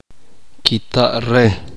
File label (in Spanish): "sin filo", uspanteko